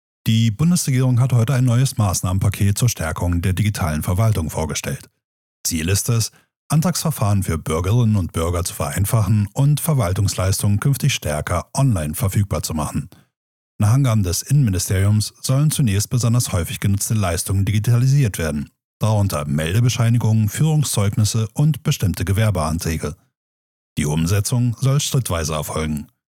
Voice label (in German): Nachrichten Sprachdatei; Sprecherprofil